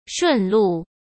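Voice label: "顺路", Mandarin Chinese; chinese flashcards; hsk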